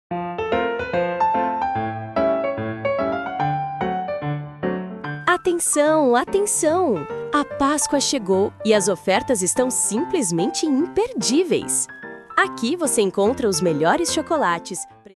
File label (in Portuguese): Vinheta Comercial Ofertas Imperdiveis de Pascoa Supermercado; para loja e carro de som